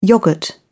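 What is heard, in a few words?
"yogurt", British English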